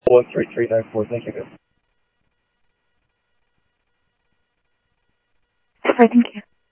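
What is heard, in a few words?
Sheriff Lake Patrol, scanner audio trunk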